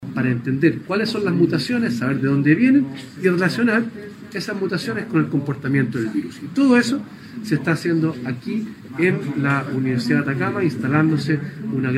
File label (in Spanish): CUÑA